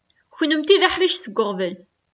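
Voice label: Kabyle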